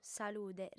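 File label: "salude", female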